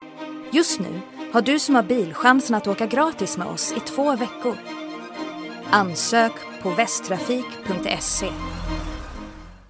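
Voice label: Swedish, commercial; GoLocalise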